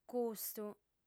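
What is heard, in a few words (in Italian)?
"custu", lingua sarda, female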